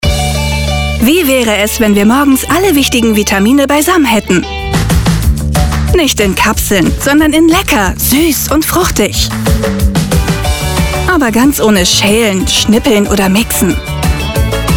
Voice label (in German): Werbung; Froosie